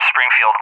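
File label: "Springfield", English, USA; CallSign; DCS; Allied Flight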